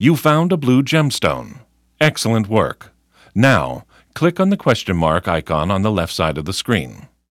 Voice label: tutorial voice; lineage2